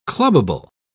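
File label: "clubable", English, British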